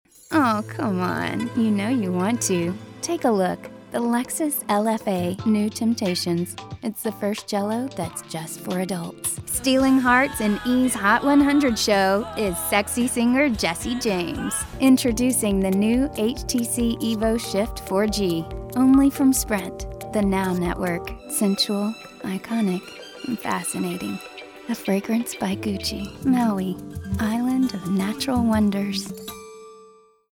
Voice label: Sultry